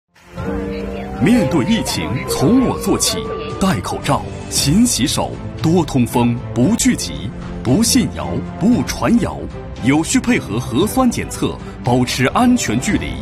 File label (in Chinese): 男 新冠疫情公益广告; 石家庄